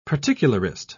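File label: "particularist", British English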